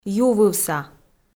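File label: "ювывса", Komi, female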